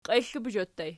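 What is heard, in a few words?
"qiexlebozho" ergative plural, Archi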